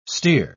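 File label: "stere", British English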